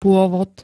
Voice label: "pôvod", Slovak

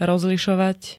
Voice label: "rozlišovať", slovenské

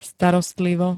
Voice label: "starostlivo", slovenské

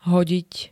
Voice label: "hodiť", Slovenské

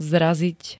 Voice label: "zraziť", slovenské

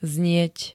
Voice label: "znieť", Slovenské

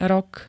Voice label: "rok", Slovenské

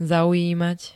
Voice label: "zaujímať", slovenské; kniha z-la všetkých; z. krásou, múdrosťou

